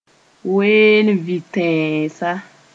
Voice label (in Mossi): "ween-n-vɩ-tɛɛsa", moore